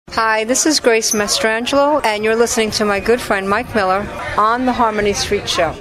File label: promo